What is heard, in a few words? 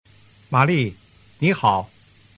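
"malinihao", Mandarin Chinese; tu moi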